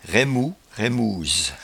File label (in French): "raimou", patois jura